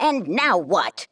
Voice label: Female; baldurs1